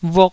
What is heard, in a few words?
"vuk2", taiwanese